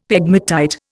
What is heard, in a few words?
"pegmatite", Indian; Dictionary